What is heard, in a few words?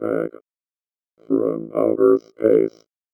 VOICEFX ELCTR 140; Techno2